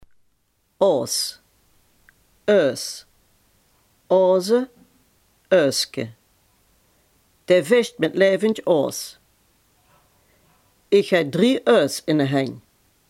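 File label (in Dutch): "aas" Limburgish dialect word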